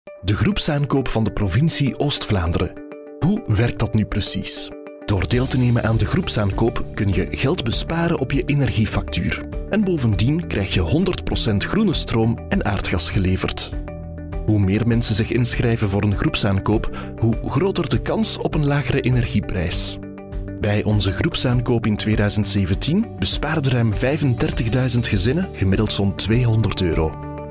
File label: flemish male infomercial